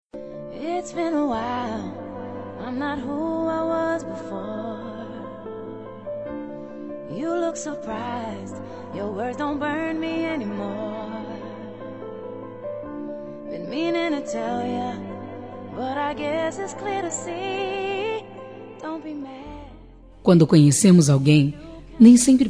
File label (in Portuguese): Romantico Suave Feminino; telemensagem romantica suave